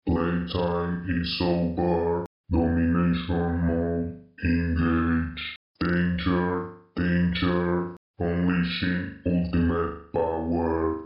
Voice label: robot voice